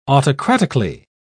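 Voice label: "autocratically", British English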